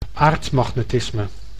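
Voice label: "aardmagnetisme", Dutch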